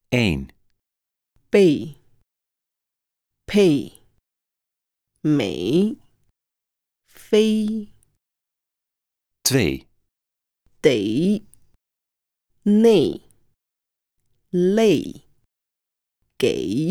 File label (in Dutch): uitspraakoefening